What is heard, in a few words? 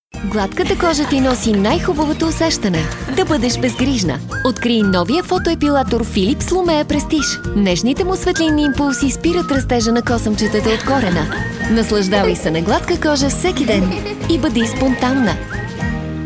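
Bulgarian, female, commercial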